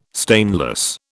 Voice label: "stainless", British